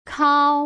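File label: "kao", Mandarin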